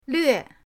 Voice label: "lve4", pinyin